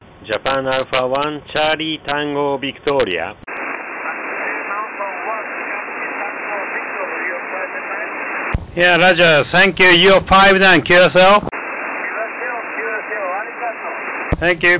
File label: 7mhz ssb